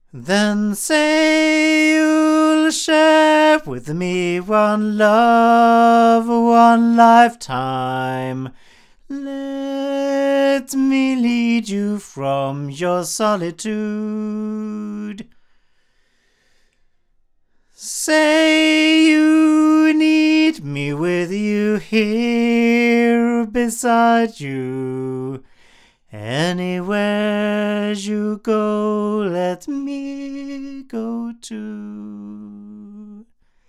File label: CV-28 omni male; Avant